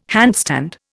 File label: "handstand", Indian